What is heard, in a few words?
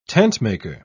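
"tentmaker", British English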